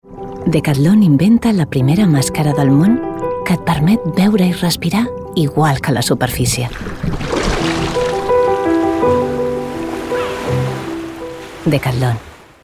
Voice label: female catalan sport comercial